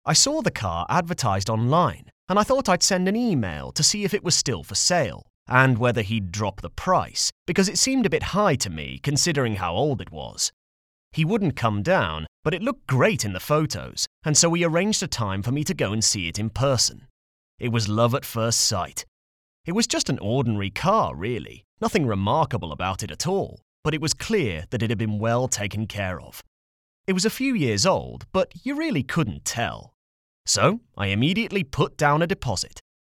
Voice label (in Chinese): 外籍 自然解说